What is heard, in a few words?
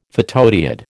"photodiode", American